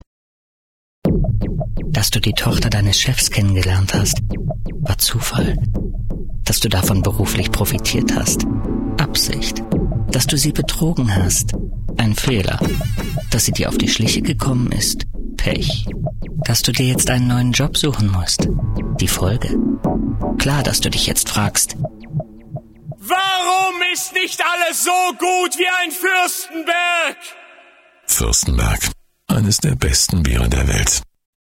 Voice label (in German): werbung; Fürstenberg Werbespot - Rache